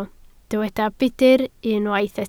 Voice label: "budr", Welsh